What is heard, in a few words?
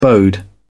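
"bode", British English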